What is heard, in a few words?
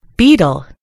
"beetle", en GB; gcompris